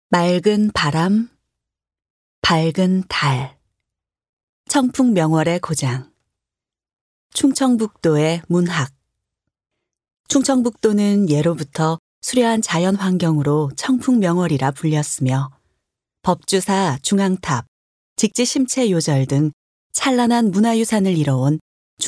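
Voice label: Korean, female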